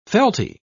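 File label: "felty", British English, dictionary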